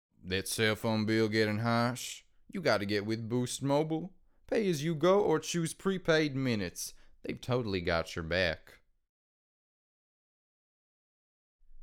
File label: Character